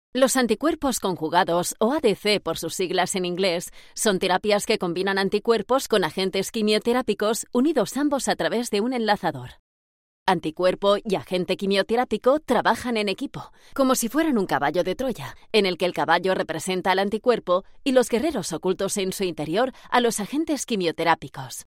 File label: spanish medical narration; professional Spanish and Catalan voice actor